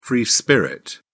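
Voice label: "free spirit", British English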